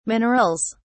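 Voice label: "minerals", British English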